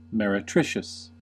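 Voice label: "meretricious", American English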